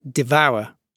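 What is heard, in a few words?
"devour", British English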